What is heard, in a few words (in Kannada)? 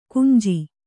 "ಗಂಜು", Kannada